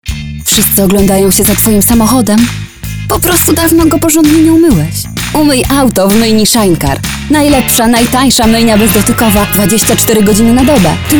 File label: Female VO Commercial